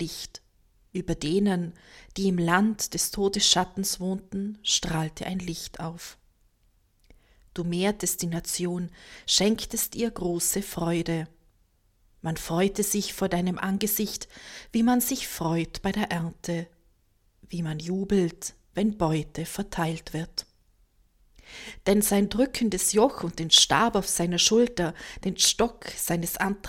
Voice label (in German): Hl Nacht 1. Lesung